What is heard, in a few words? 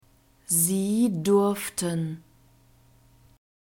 "sie durften", German; verbs past tense irregular